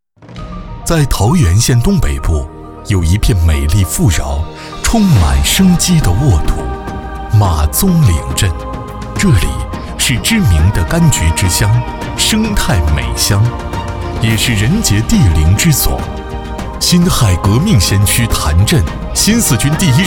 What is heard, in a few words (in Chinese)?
浑厚大气, 男 粤语 旁白